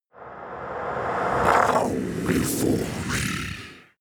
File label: demon dark voice reverse bow before me